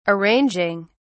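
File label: "arranging", British English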